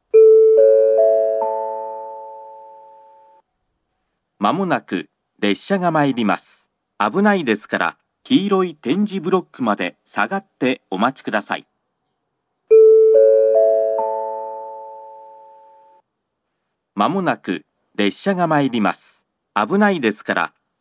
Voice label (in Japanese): 接近放送 上下とも同じ放送が流れます。; 大糸線; 松本方面